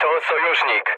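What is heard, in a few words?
player friendly fire, mood low, Polish speech; War Thunder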